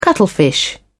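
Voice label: "cuttlefish", British English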